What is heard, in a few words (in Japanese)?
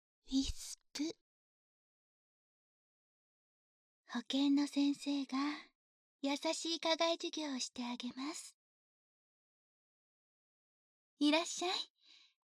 タイトルコール, ASMR